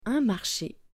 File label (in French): "Un marche", French